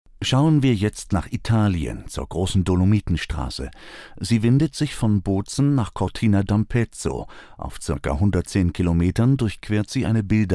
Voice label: Explainer video General public, German voice-over, Narrative and Clear